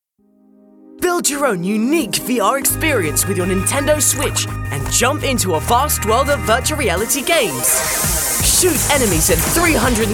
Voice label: Commercial Reel UK; Animation

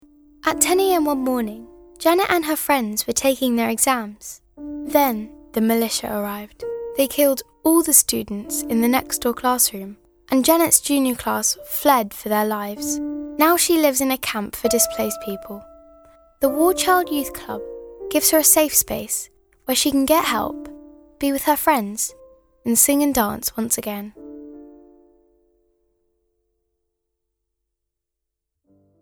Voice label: UK; Warchild

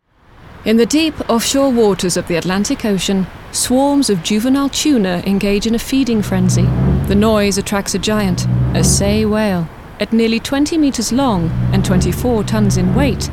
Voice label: Narration whale

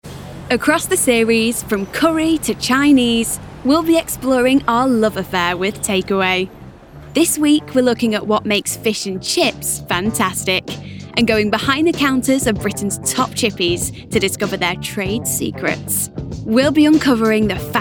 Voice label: Documentary sample; Best of British Takeaways

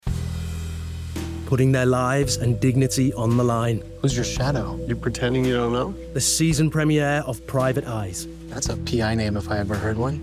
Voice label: Promo; 5USA; PrivateEyes

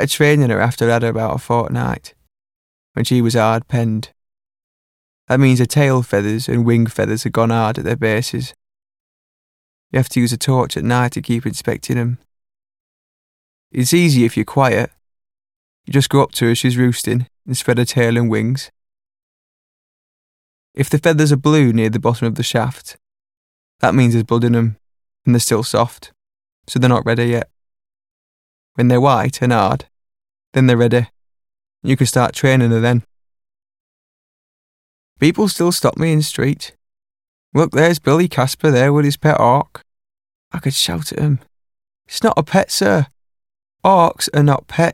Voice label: Yorkshire

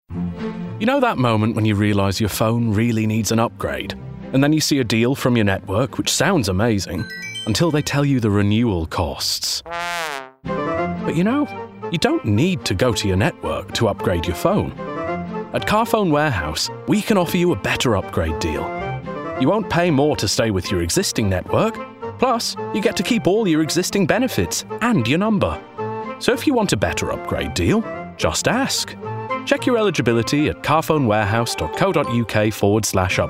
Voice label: COMMERCIAL; Carphone Warehouse

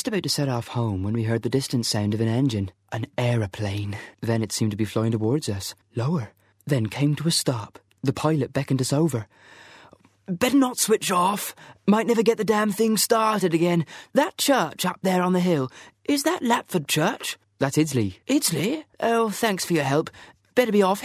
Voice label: Character Voice Reel; Audiobook Characters

